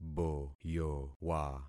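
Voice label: "boyowa", concatenated syllables; Affixing experiment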